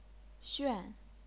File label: "xuan4", chinese pinyin